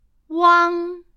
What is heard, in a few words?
"uang", Chinese